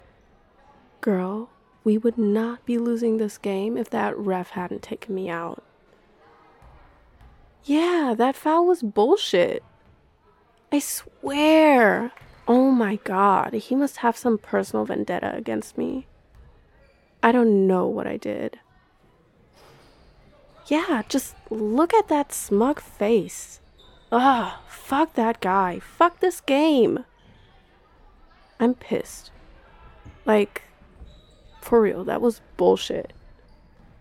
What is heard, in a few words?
Referee, make it up to me, Improvisation, SFX, Wet Sounds; F4M